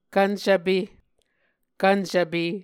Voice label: "kvncvpe", muscogee

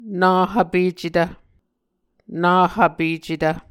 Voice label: "nahvpecetv", Muscogee

